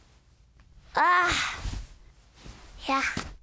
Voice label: FEMALE Emotion